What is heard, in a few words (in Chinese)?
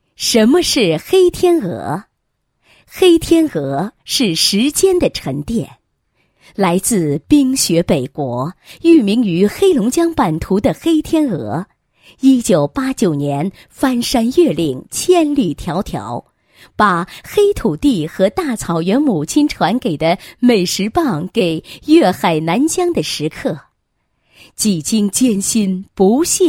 女 企业 文案, 配音; 黑天鹅公司文案